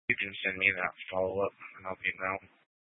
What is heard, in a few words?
Sheriff Dispatch, scanner; Mesa County